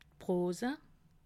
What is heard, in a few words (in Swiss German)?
"prosa", alsace